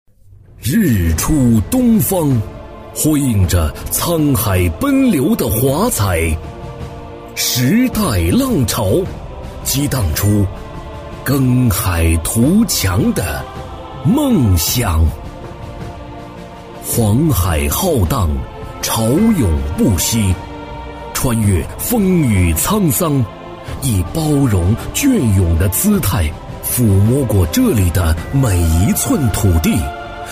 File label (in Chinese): 男, 宣传片, 大气昂扬浑厚; 男B3-磁性稳重; 大气激昂